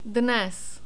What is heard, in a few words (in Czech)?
"dnes", Czech